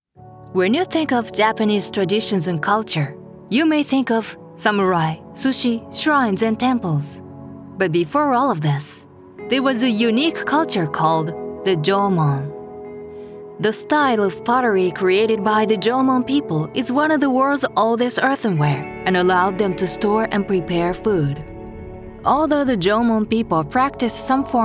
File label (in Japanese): 落ち着いた 英語; ボイスデモ; 縄文遺跡紹介VP